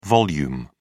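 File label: "vol", British English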